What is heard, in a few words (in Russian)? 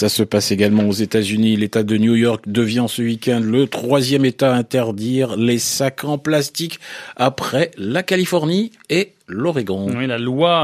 Аудиозапись по французскому языку; 7-8 классы